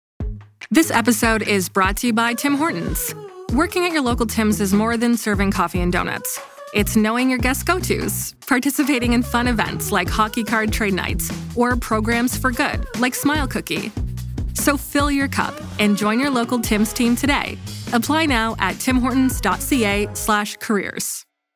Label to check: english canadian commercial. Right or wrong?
Right